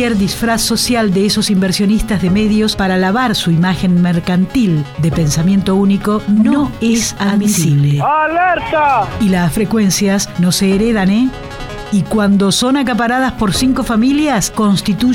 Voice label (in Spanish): radios comunitarias spots